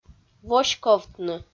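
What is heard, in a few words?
"воськовтны", Komi, female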